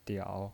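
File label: "diao" Mandarin Chinese, third tone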